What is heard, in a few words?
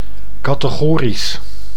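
"categorisch", Dutch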